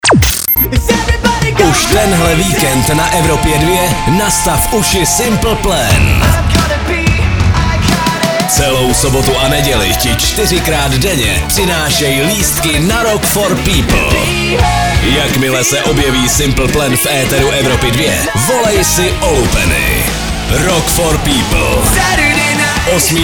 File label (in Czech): liner vikend; evropa2; rfp